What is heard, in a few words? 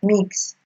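"mix", Nigerian Pidgin, female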